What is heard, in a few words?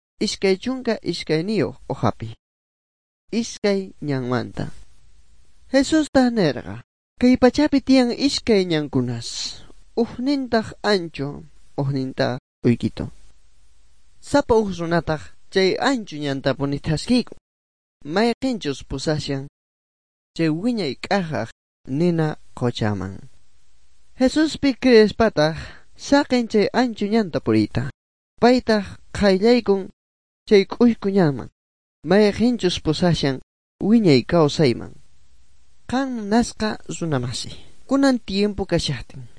good news quechua bolivian sucre; bible